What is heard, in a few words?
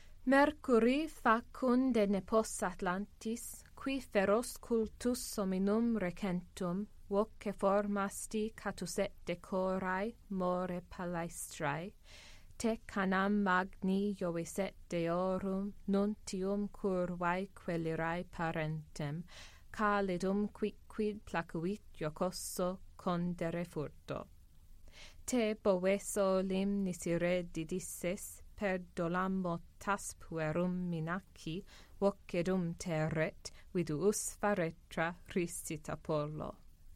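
Horace Ode 1.10 read, Latin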